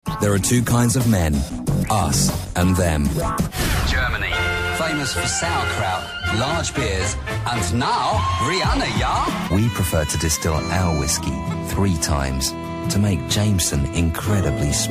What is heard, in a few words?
UK